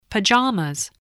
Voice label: "pajamas", English voice